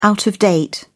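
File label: "out-of-date", British English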